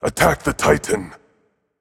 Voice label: VOX Attack M; smite; achilles